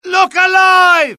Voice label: alert, dialogue UK; mohaa